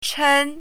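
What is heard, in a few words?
"chen1", Mandarin Chinese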